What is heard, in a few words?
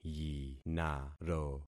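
"yinaro", concatenated syllables; Affixing experiment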